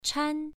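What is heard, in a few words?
"chan" Mandarin, first tone, pronunciation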